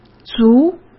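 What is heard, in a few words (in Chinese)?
"足", Mandarin Chinese; chinese flashcards; hsk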